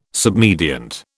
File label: "submediant", British